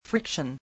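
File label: "friction", English word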